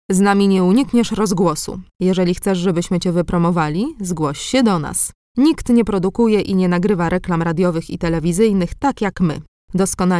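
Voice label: Polish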